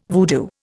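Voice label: "voodoo", Indian English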